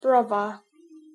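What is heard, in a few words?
"brother", British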